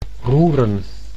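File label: "roeren", Dutch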